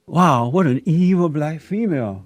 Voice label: evil female